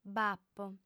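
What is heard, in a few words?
"b'apo", female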